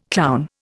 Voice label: "clown", Indian